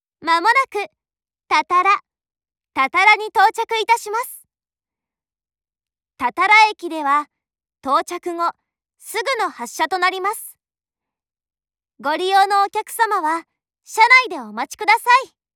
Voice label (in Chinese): 真冈方向 多田罗站抵达前 广播, 铁路车内广播; 万冈铁路车内广播